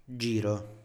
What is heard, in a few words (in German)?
"giro", Italienisch; Ausflug; Standard-Italienisch